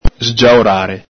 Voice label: "sgiaorare", Veneto

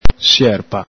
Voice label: "sierpa", Veneto

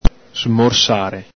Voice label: "smorsare", Veneto

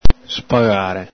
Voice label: "spagare", Veneto

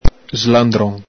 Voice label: "slandron", Veneto; ENG: dirty person; ITA: sudicione, lezzone; el Galepin

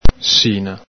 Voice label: "sina", Veneto

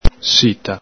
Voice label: "sita", Veneto

